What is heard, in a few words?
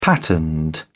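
"patterned", British English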